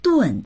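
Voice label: "dun4", Mandarin Chinese; 顿; ～食、～回（食事、叱責、罵倒などを数える）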